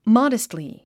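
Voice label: "modestly", English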